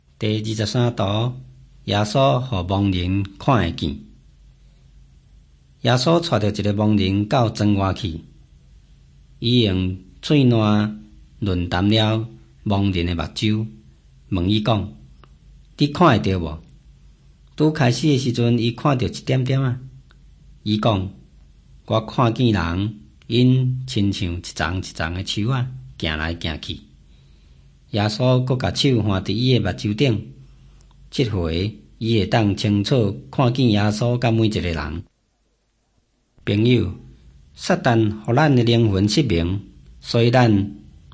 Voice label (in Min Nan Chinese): taiwanese, drama